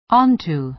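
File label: "onto", American English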